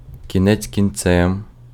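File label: Ukrainian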